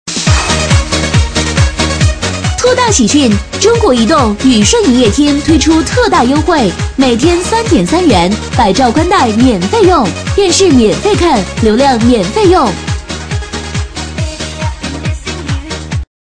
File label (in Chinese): 女 促销 营业厅, 促销女配; 中国移动禹顺营业厅